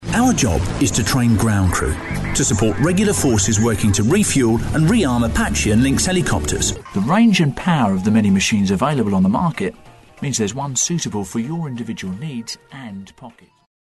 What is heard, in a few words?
corporate